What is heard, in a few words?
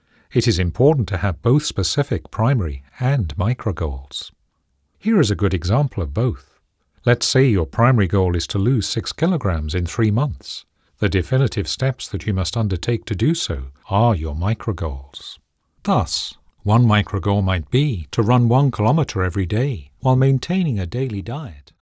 Self Dev, voiceovers